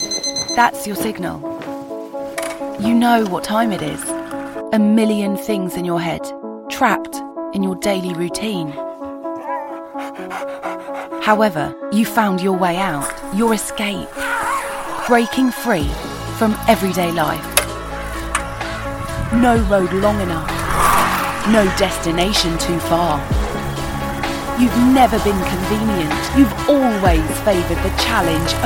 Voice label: english british movie trailers